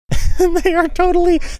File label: saying they are totally chuckling high pitched voice